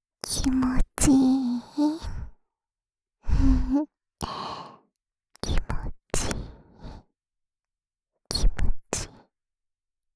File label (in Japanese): きもちい？, ASMR